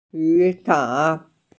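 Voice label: "yusan", Mandarin Chinese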